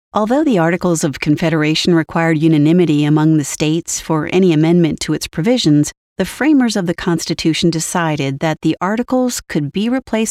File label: Docu Book, en-AU; ArticlesofConfederation